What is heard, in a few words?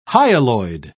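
"hyaloid", British English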